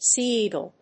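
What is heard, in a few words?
"sea eagle", English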